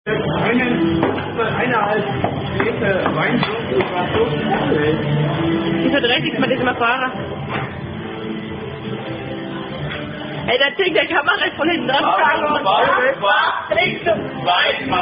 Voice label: Baron Baff Trinkspiel, German Women Playing Are You A Cardinal Drinking Game